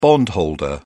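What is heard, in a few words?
"bondholder", British English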